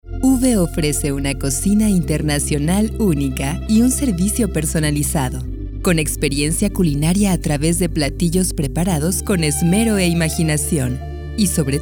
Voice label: Demos varias intensiones

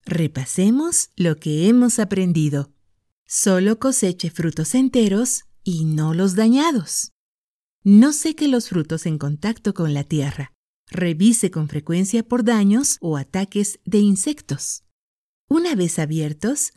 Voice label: locutora e-learning

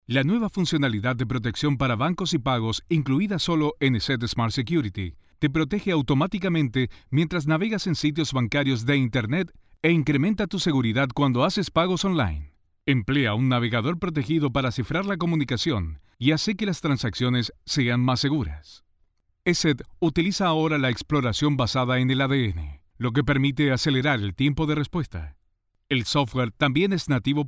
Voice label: E-Learning neutro

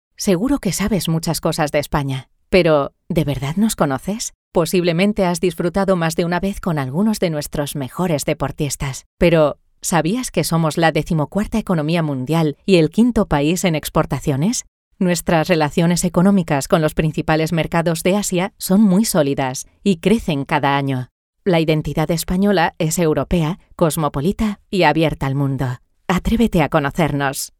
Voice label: cercana

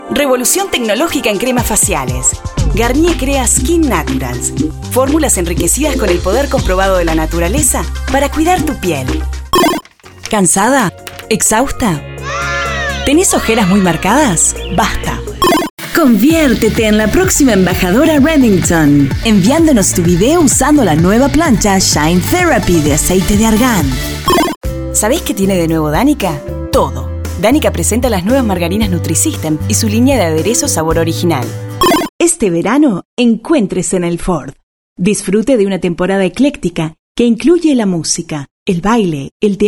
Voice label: comerciales

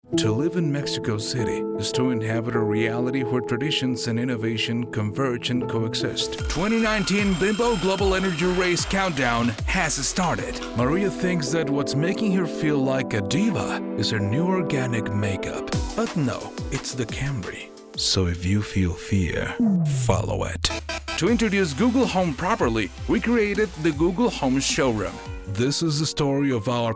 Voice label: INGLES

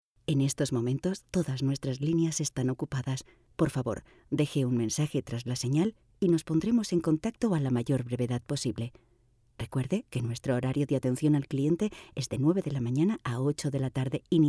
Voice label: FEMALE Spanish contestador

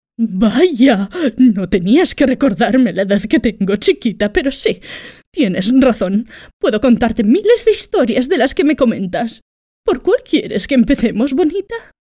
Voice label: VIDEOJUEGOS ABUELA

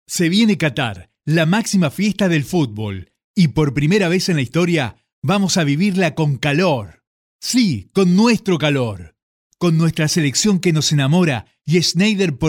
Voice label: Promocionales

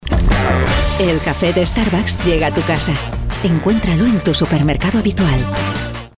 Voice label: locucion para anuncio; starbucks

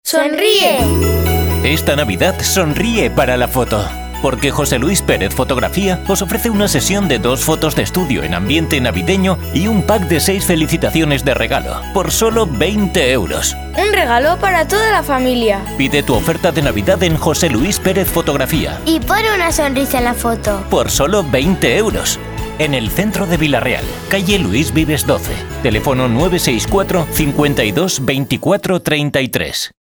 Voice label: Oferta; JLFotografia

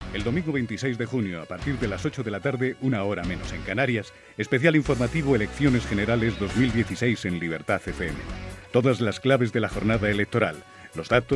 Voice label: PROMO ELECCIONES GENERALES

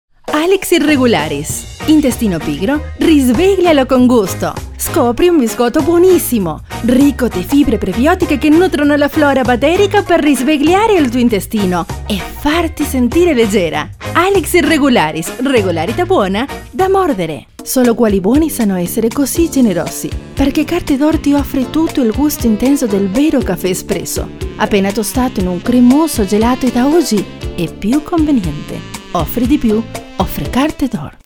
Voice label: MUJER ITALIANO PROMOCIONAL CON MUSICA

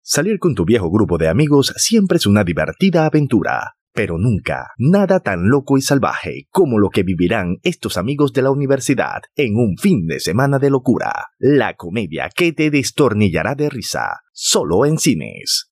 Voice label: trailer comedia

